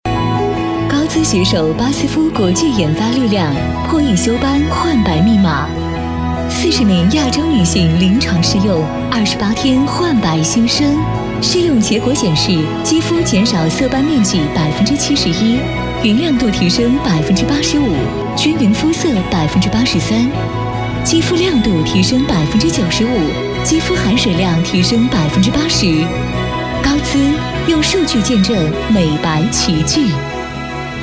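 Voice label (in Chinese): 广告化妆品品质; 化妆品大牌·品质高姿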